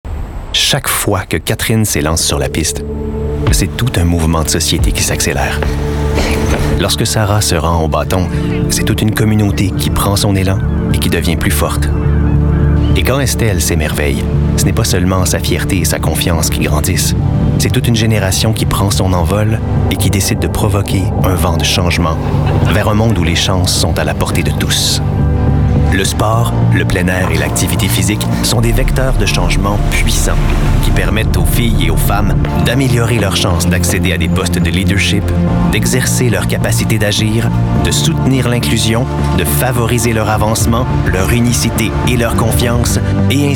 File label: french voice over male narrator